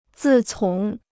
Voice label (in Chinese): "自从", Chinese